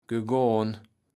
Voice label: "gegohn", Kaevels Platt